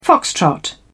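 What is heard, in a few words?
"foxtrot", English, British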